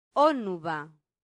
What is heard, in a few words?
"onuba", latin; santillana